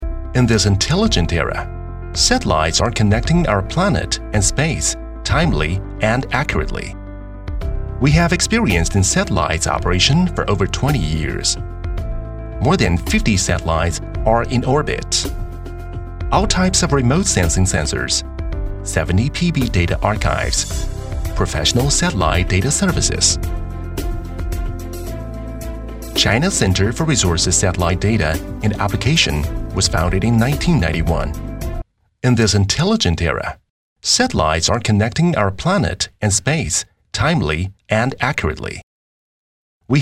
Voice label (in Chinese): 英男 美式 专题 年轻音色 轻松